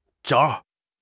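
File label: "zhao1", Chinese; 汉语口语新时代-初级下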